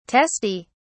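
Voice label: "teste", English, British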